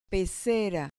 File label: "pecera", Spanish